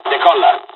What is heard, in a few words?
mission fly, dialogs italian; War Thunder